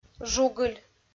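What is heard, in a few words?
"жугыль", Komi-Zyrian, female; audio dict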